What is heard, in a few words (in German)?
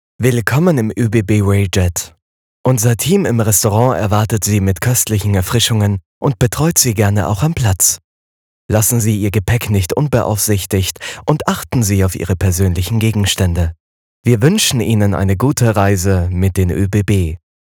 Zugansage; OEBB